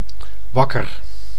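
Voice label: "wakker", Dutch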